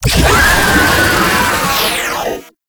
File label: Pain; Enemies; Vocals; Warframe; Proto Infested Babau